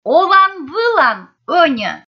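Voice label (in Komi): олан-вылан ӧньӧ, Komi, female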